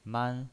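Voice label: "man", pinyin voice, Mandarin Chinese, first tone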